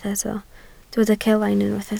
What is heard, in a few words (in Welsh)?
"celain", Welsh